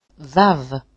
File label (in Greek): "vav", greek; βαυ; waw or vau; digamma